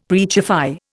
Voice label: "preachify", Indian; Dictionary